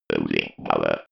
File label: robot voice loosing power